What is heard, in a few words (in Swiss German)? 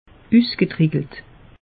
"usgetrickelt", alsace; meteo